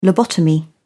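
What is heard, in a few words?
"lobotomy", English, British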